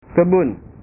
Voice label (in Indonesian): "kebun", bahasa